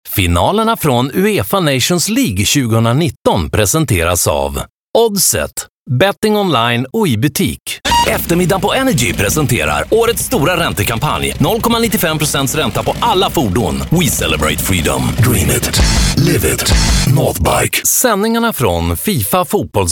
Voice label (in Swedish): radio reklam; kent